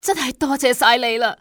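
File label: "thank", female; XBOX; civ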